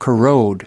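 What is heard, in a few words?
"corrode", US English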